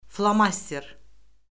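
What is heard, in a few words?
"flomaster", Azerbaijani; Orfoqrafiya Lüğəti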